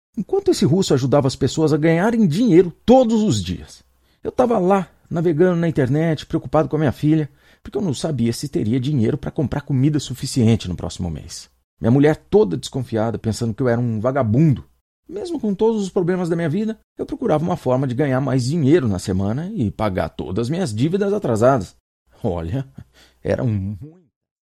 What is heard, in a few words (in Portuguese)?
voz teatral